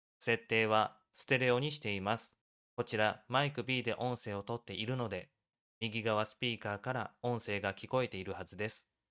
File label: fifine m9 microphone